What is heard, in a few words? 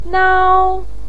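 "nao", Mandarin pinyin, tone 1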